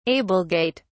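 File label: "ablegate", British English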